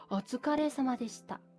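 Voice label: "otsukare", Japanese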